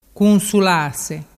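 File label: "cunsulase", Vernazzese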